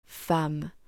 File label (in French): "femme" French